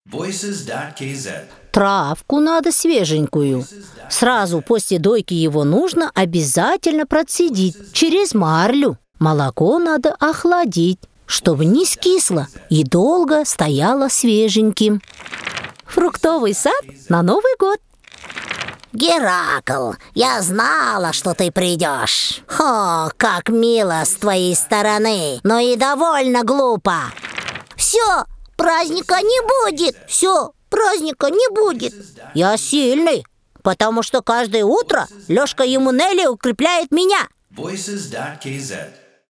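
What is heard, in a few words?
Russian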